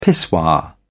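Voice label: "pissoir", British English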